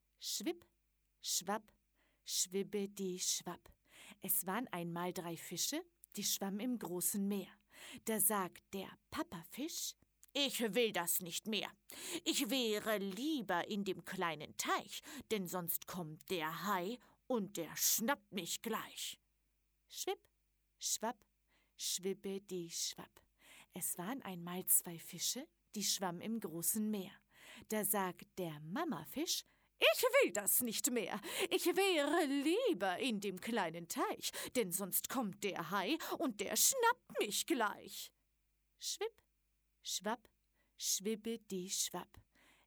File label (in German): trickstimme; kleine fische